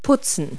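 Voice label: "cleave", English word; Multi-Lingual Talking Picture Dictionary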